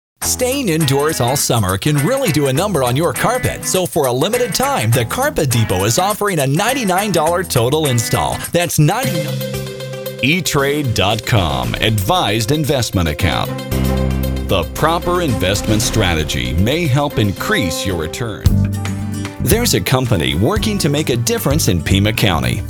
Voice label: VO montage